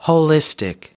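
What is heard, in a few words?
"holistic", American English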